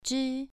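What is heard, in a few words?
"zhi", Mandarin Chinese, second tone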